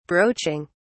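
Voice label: "broaching", British English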